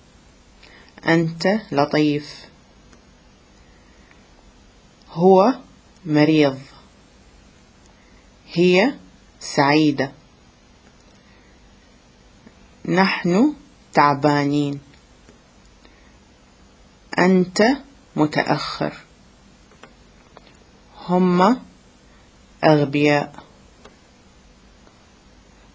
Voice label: Arabic